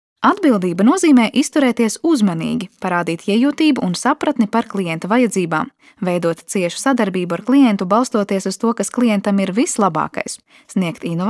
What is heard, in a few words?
latvian female; dictor